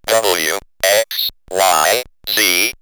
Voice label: Robot W, X, Y, Z, robot vox; X-Static Goldmine